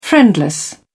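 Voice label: "friendless", British English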